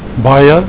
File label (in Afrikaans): "Baie", Afrikaans; A lot